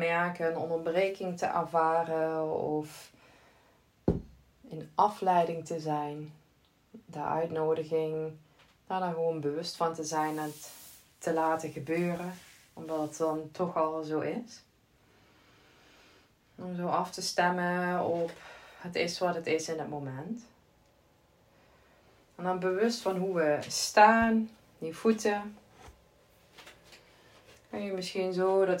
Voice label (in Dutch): Loop 12 min adem 16 min meditatie; mindfulness; Loop- & Ademmeditatie (16 min)